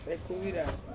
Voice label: "kuira", Ansus; to bathe

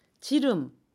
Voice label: grease, Jeju-eo, Dialect: Jeju-si; 지름; (Korean) 기름

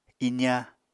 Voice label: "iza", Apatani

